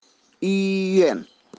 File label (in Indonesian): latihan bahasa mandarin; unit 8